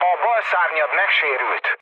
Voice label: left wing, mood low, speech hun; War Thunder